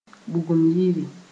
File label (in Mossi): "bugum yiri", moore